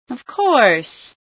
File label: of course, female sci